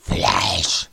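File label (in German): "fleisch", German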